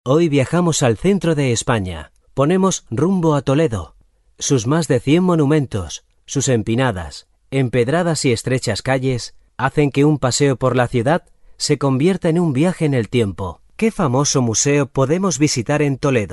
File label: spanish male e-learning